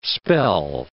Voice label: "spell", English